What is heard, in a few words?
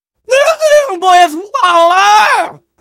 jeers; voice packs; scout